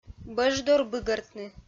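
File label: "бӧждор быгӧртны", Komi-Zyrian, female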